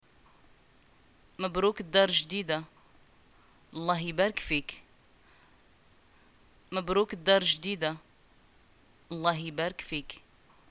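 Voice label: greeting buying new house, Moroccan Arabic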